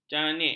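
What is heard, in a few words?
"janik", Malay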